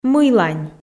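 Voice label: "мыйлань", Komi, female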